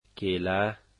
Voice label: bananas, dari

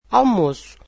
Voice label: portuguese lunch

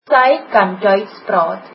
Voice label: she can speak German, afrikaans

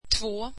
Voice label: two, swedish

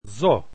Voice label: "z", Esperanto alphabet

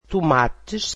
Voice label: portuguese tomatoes

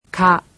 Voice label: japanese alphabet "ka"

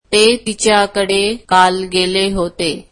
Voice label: marathi they visited her yesterday

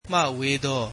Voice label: far from, burmese

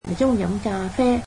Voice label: cantonese you will drink coffee

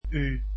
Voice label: "u", Dutch alphabet

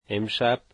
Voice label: tonight, Dari

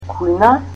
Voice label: "kitchen", Macedonian

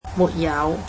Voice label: "without", Cantonese

